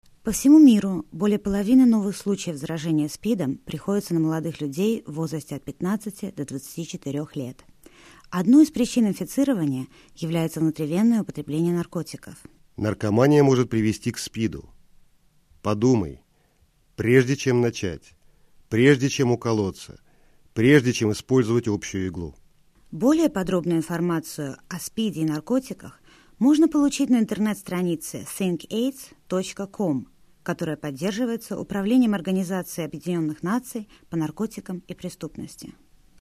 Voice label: spot russian

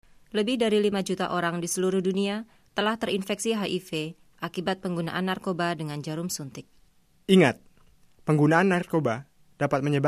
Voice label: spot indonesian

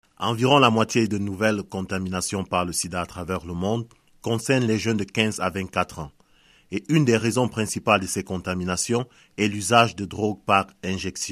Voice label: spot french

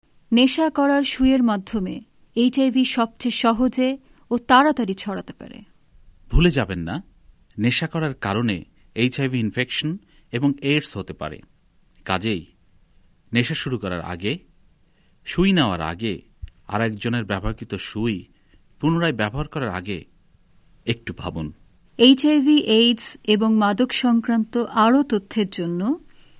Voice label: spot bengali

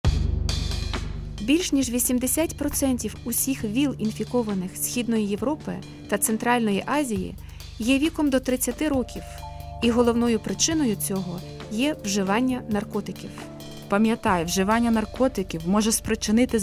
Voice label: spot ukrainian music

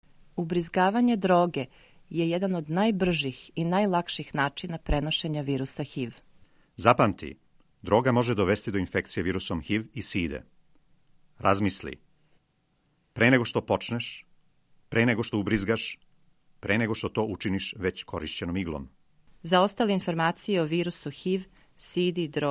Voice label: spot serbian

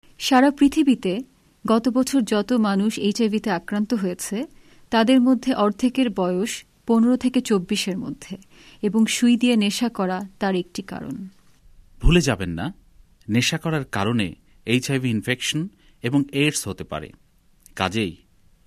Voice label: spot bengali

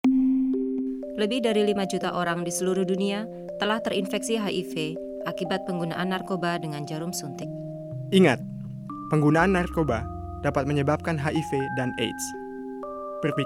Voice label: spot indonesian music

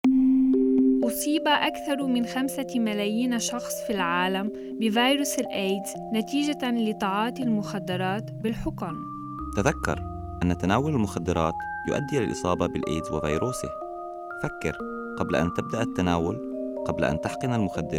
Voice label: spot arabic music

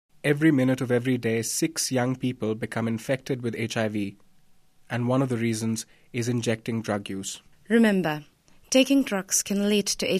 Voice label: spot indian eng

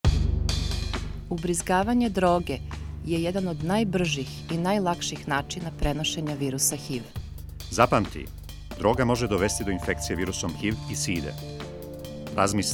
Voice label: spot serbian music

